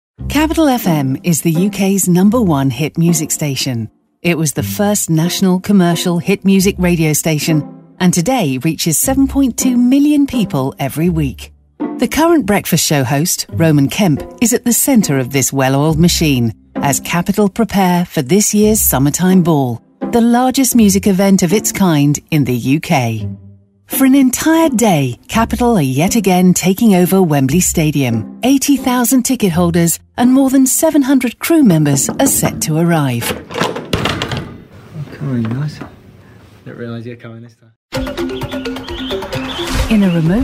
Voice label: documentaries